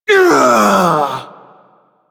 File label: Ability Throw, Barks; Warframe; Corpus Lich; Persona C